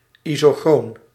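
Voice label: "isochroon", Dutch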